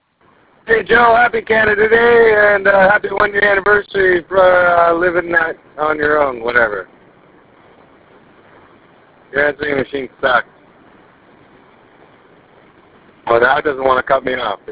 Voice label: voicemail